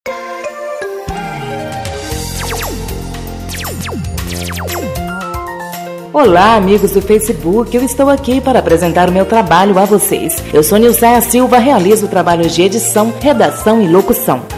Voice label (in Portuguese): spot locução